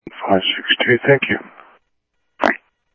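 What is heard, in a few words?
Sheriff East County, scanner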